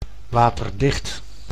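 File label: "waterdicht", Dutch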